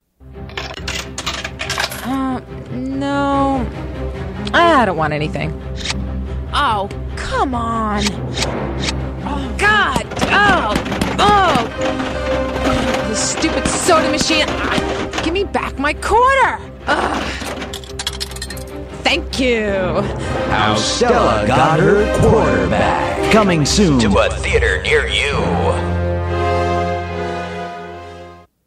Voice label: RADIO COMEDY

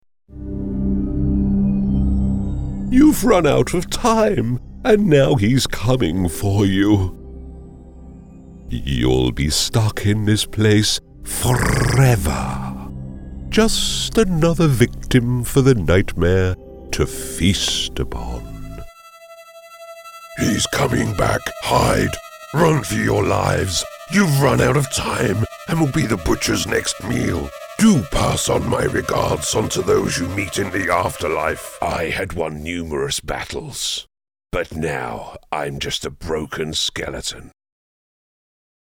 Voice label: Sinister Warrior Gaming Voices

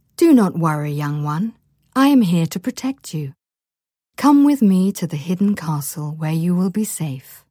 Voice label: Toys Animation Godmother

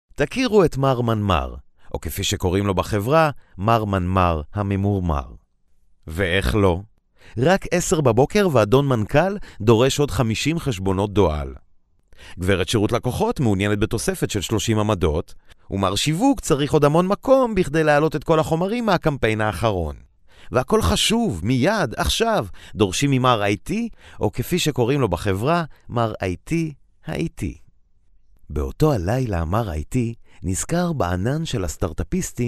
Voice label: hebrew voice over audio bite

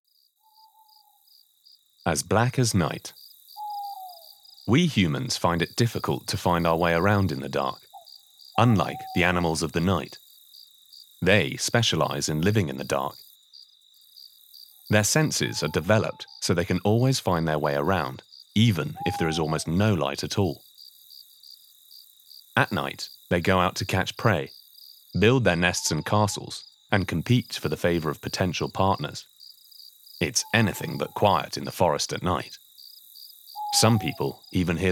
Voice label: Museum Audio Guide; Animalsofthenight

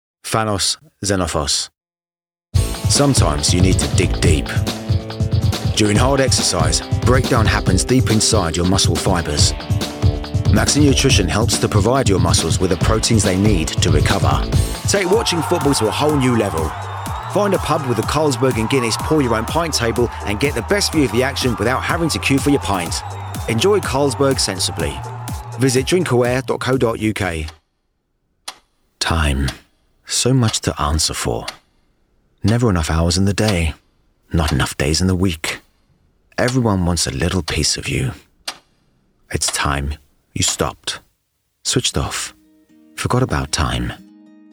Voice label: Commercial voice

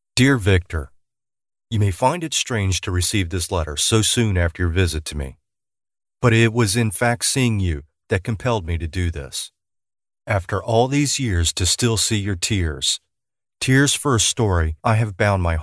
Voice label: Short audiobook

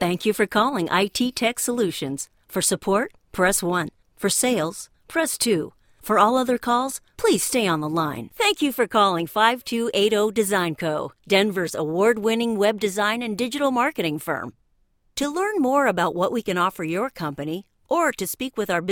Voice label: IVR

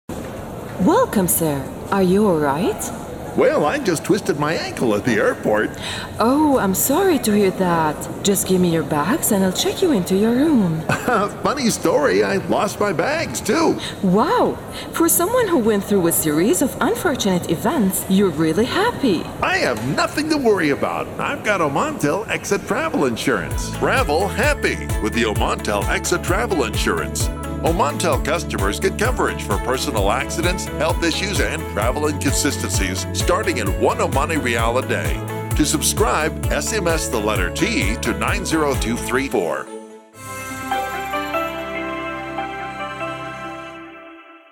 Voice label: AD ENGLISH; OMANTEL

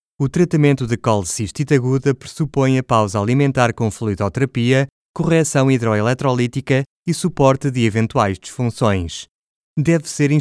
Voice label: Medical Recording PT

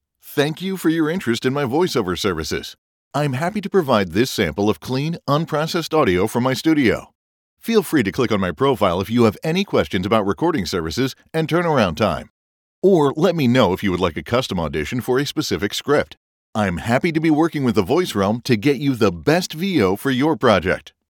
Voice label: Clean Audio

